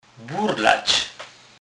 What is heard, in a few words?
burlac, Kurpie; slownik